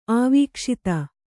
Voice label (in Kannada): "ಆವೀಕ್ಷಿತ", Kannada